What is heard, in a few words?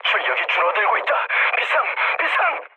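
Korean damaged engine mood low, speech; War Thunder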